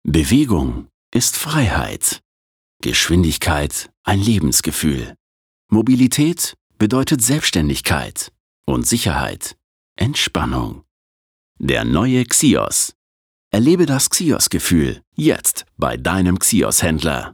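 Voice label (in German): Werbung, Dynamisch; Xios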